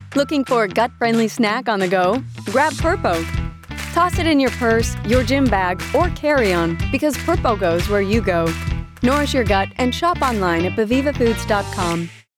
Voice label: Bright, Cheery; PURPO

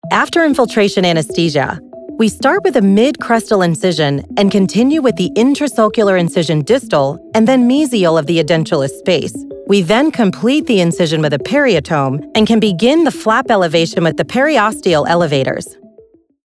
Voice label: Dental Explainer Implant Procedure

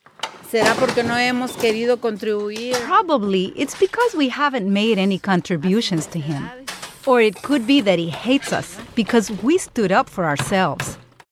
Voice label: Radio Hispanic Accented English; English - Documentary; Living on Earth Radio

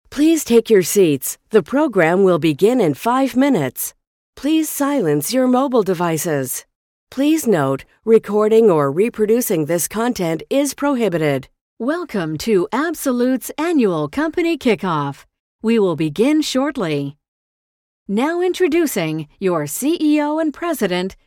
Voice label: VOG Voice of God

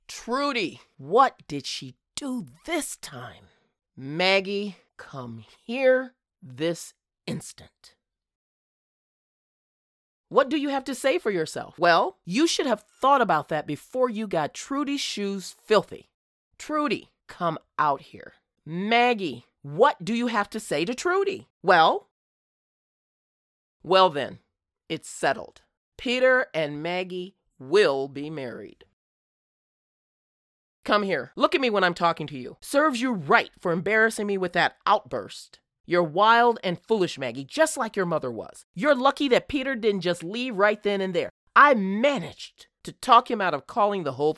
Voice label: Modern Day Abusive Stepmom

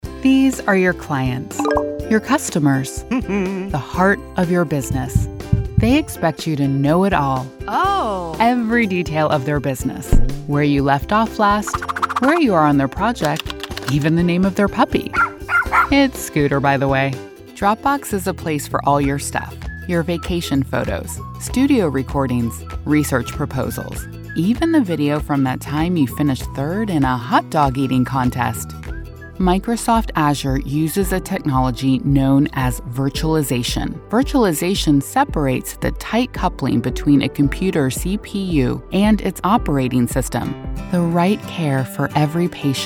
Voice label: Online Explainer

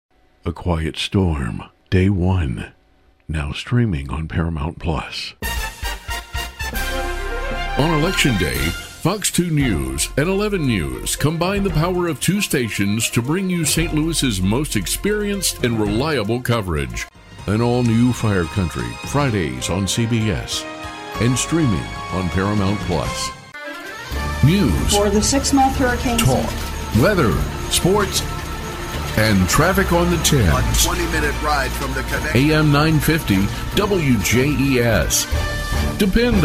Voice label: TV Radio Promo

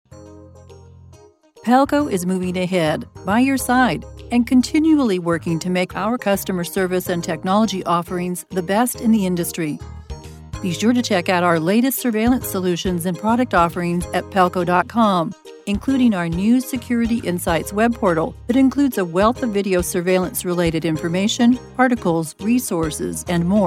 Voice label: Onhold

